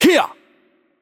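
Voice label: Greek attack heavy voice; bloom minigame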